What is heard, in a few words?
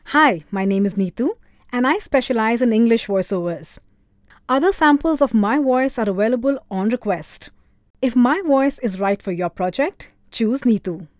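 Hindi English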